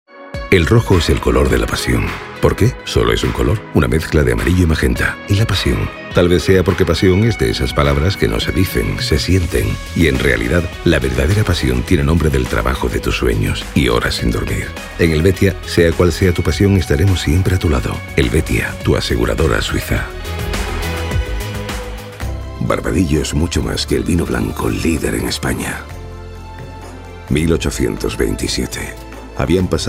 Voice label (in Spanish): Masculino; Sonoarte